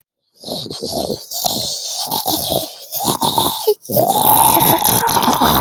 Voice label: voice message